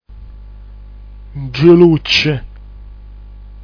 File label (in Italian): "njlucc", dialetto giulianova